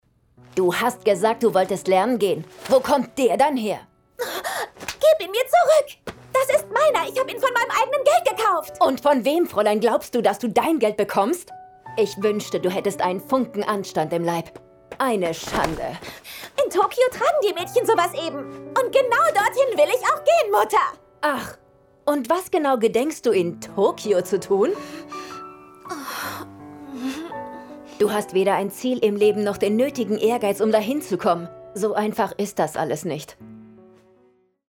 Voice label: german animation